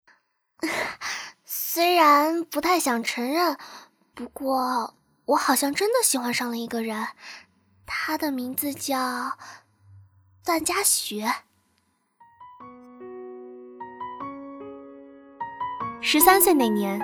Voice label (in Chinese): 女播; 偷偷藏不住